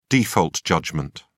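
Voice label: "default judgment", British English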